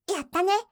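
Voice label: "yattane", Japanese, yorokobu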